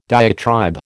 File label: "diatribe", American